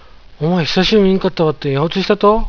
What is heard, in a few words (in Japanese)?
おまい、久しぅ見んかったばってん、やおついしたと？, 有田弁; きみ、長いこと見なかったけど、引っ越ししたの？; やうつい／やおつい